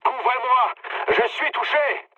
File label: aircraft damaged mood med, speech fr; War Thunder